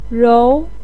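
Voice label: "rou", Chinese pinyin, second tone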